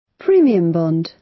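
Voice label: "premium bond", British English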